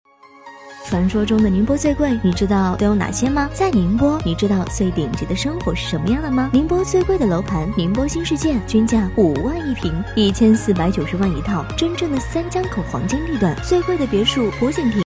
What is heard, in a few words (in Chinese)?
女 短视频 抖音城市介绍